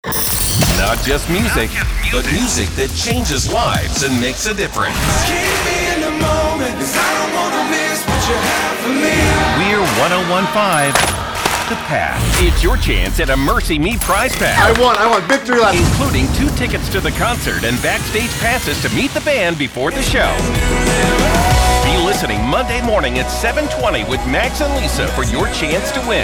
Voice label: Christian AC Imaging

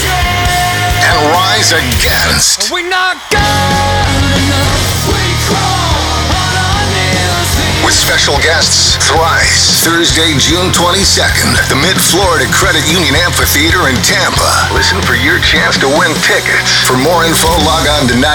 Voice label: Rock Imaging

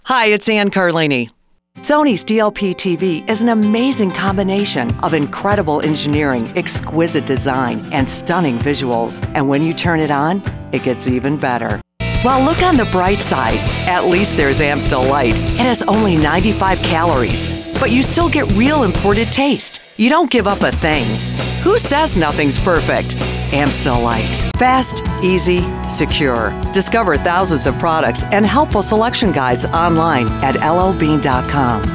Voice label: Commercial

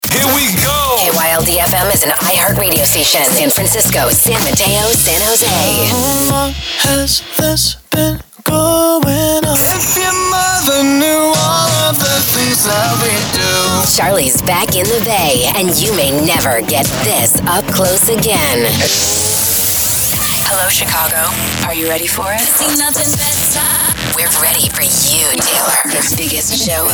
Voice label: imaging

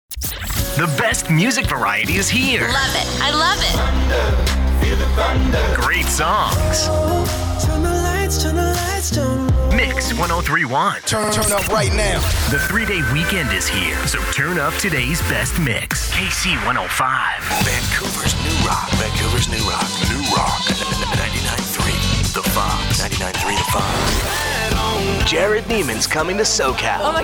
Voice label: Radio Promo

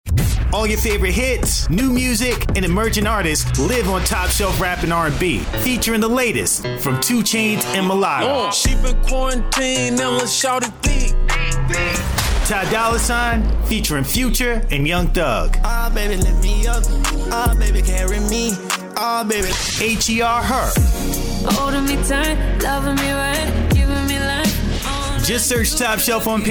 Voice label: vo work

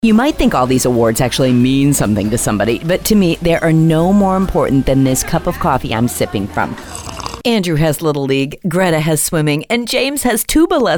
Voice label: Commercial Character

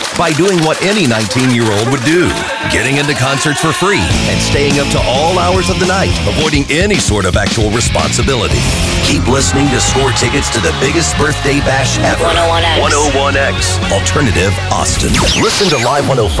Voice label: Alt Rock Radio Imaging

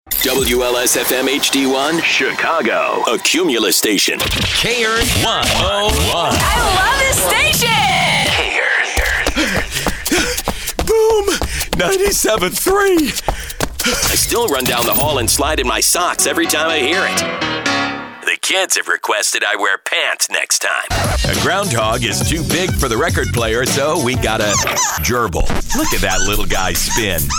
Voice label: CLASSIC HITS RADIO IMAGING

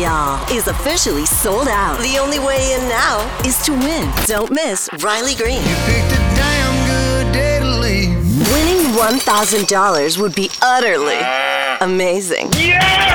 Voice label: Country Radio Imaging

